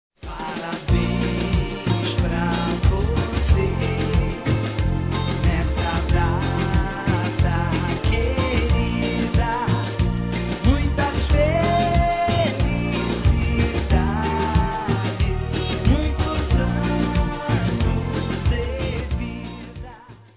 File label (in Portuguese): Aniv. Menino com Paquera Feminino, Telemensagens; Aniversário Infantil